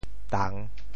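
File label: "tang3", Teochew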